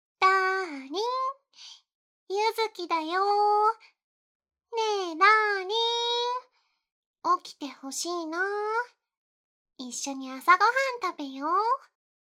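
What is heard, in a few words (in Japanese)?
目覚まし（彼女っぽく）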